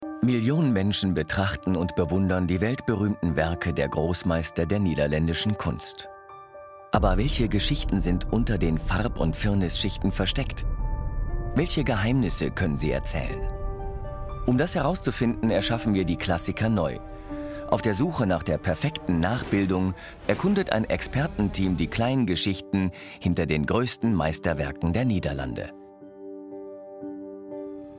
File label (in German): doku; 3sat